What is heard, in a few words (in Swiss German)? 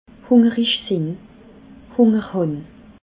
"hungerig sinn", alsace